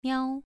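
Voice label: "miao", Mandarin Chinese syllable, second tone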